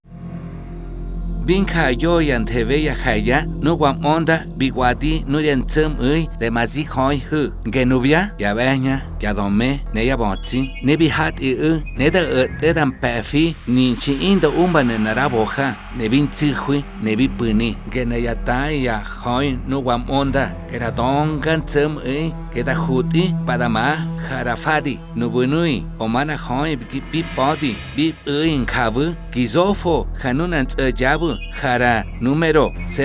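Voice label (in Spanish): otomi del valle del mesquital; Trata Laboral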